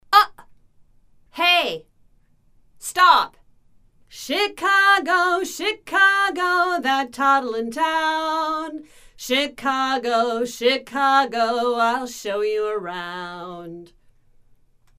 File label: After Female